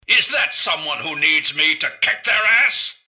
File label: generic robot normal to alert; mrgutsy; npc